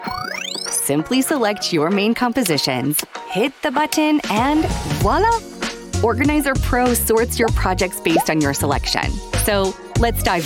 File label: english american explainer videos; professional North American woman voice over talent